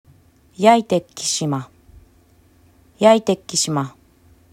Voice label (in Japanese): "ヤイテㇰキㇱマ", アイヌ語; yaytekkisma; アイヌ語クイズ; ヤイyay・自分 テㇰ tek・手 キㇱマ kisma・をつかむ